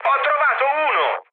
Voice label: player killed enemy mood med, speech it